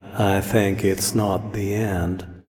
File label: Voice Monolog